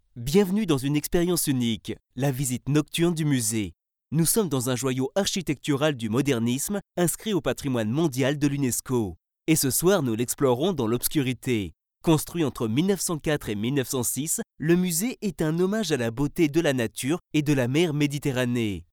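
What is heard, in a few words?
French male Audiobook; voice over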